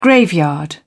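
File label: "graveyard", British English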